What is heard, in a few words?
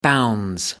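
"bounds", British English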